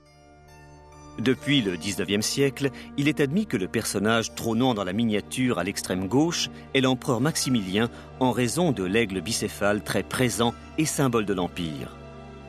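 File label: French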